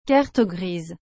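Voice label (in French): "carte grise", French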